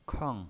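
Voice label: "keng4", Mandarin pinyin voice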